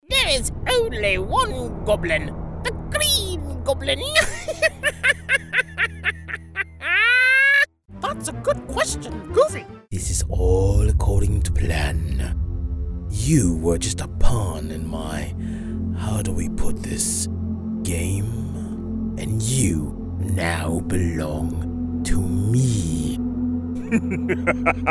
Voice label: Character